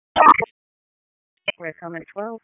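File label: EMS DISP, radio; wake p25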